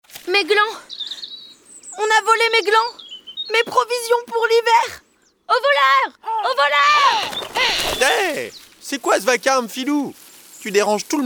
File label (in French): Histoire